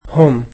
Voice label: "their", egyptian